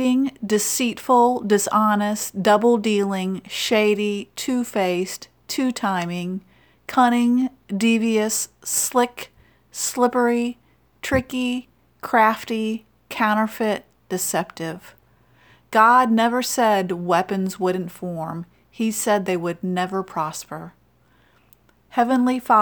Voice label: Childrens Bible Verse; Proverbs 8 13